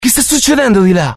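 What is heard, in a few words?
curious, Italian; mohaa; dialogue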